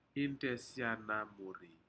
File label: "intesianamuri", Aneityum; fish